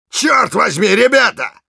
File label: negative vocalization, ru; Engineer